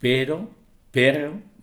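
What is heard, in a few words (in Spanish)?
"pero perro", Spanish, Medellín; Menorca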